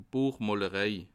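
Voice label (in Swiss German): "buechmolerei", Bas Rhin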